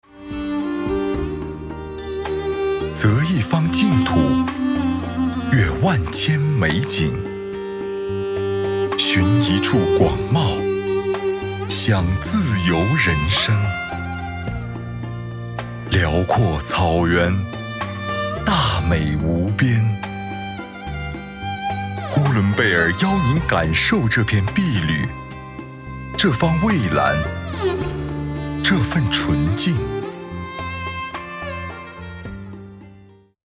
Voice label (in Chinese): 宣传 大气感 旅游; 宣传-大气感-《呼伦贝尔旅游Demo》